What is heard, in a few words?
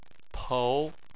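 "pou", Chinese, tone 2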